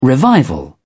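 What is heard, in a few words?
"revival", British English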